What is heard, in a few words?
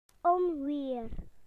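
"storm", British English; PictureDictionary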